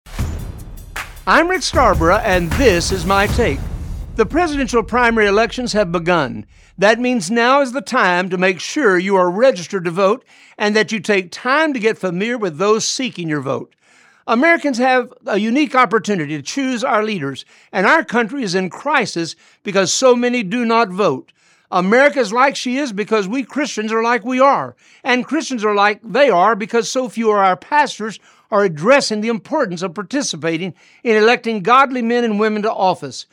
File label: Radio Spot; Voting